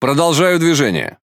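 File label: Russian Speech Pilots Bomber Airborne; World Of Warships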